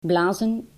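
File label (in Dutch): "blazen", Dutch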